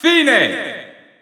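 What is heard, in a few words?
narration gameset, Italian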